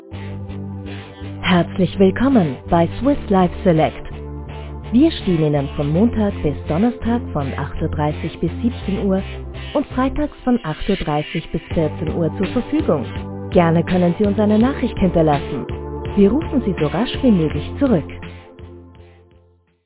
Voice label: Telefonschleife; swiss life select

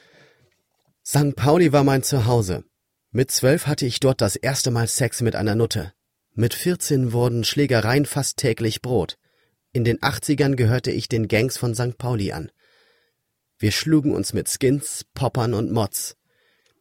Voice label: Hörbuch

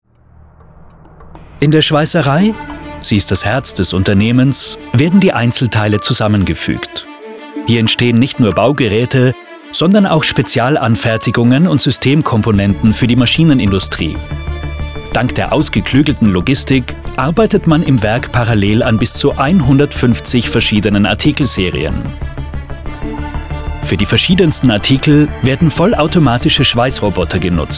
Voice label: Imagefilm

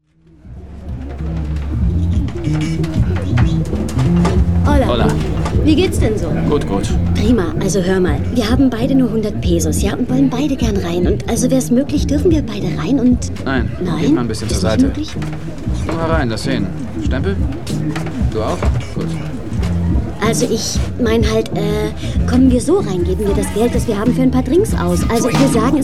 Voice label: Synchron; Gigante

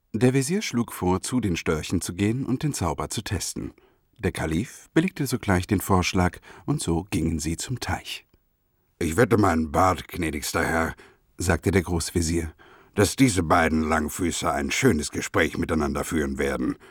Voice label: erzaehlung

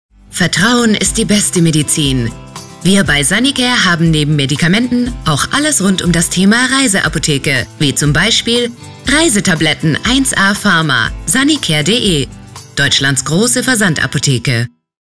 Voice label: tv spot sprecherin; sanicare

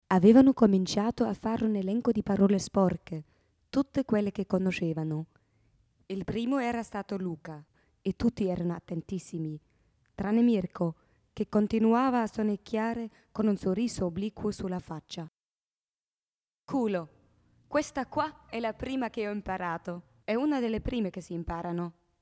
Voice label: italienisch; dei bambini non si sa niente

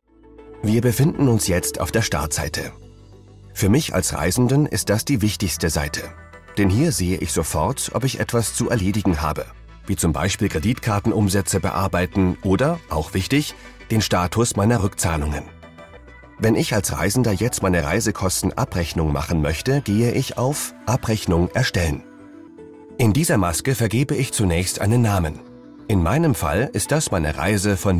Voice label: erklaerfilm

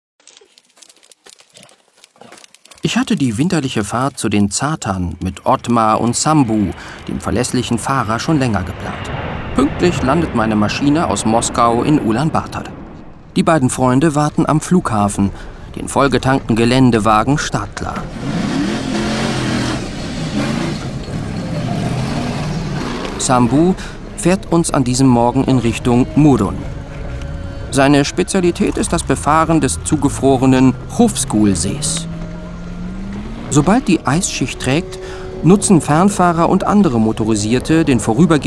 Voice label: doku mongolei

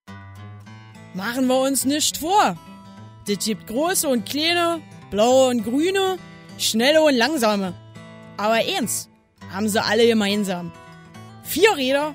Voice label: werbung dialekt

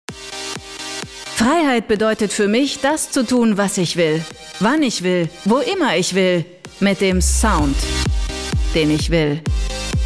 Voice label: werbeprobe musik

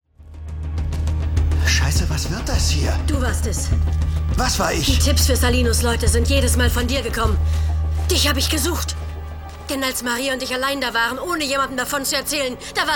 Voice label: expressiv ausbruch; kommissar wallander

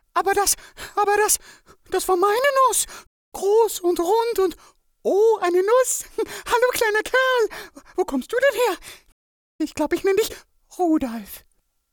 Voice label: cartoon Tierchen

